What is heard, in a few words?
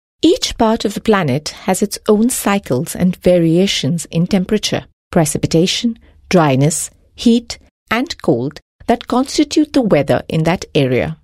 female voice Elearning vo